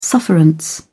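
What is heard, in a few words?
"sufferance", British English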